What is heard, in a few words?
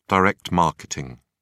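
"direct marketing", British English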